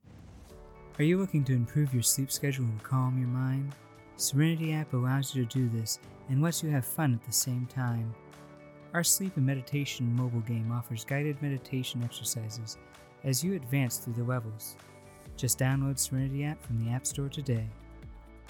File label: Voice Sample with Backround, Online Ad